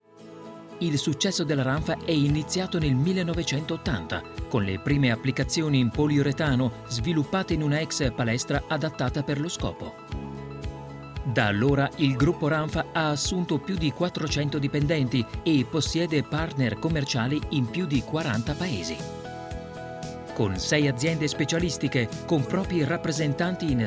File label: corporate